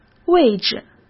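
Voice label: "位置", Mandarin Chinese; chinese-flashcards; hsk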